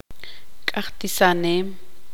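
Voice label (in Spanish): "quemar", Tz'utujil, female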